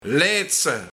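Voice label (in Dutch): "Leitse", Luik, Bretellen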